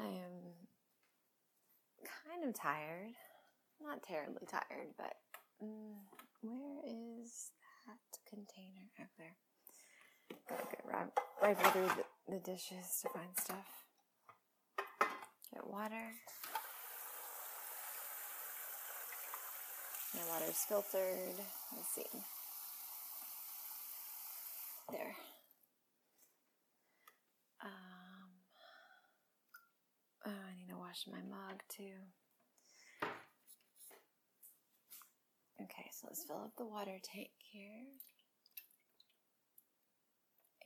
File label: making coffee again, morning voice, laughing, cat noises; Mocha Java; rambles; F4A; Monday Morning Coffee